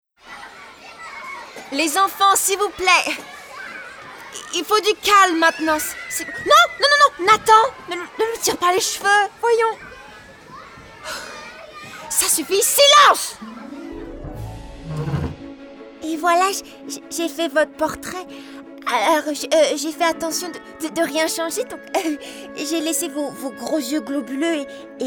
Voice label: Animation Video Game French